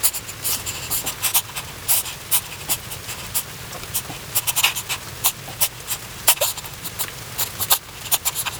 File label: sad mummy despair loop; LoL; amumu